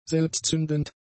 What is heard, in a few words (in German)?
"selbstzündend", German; adjectives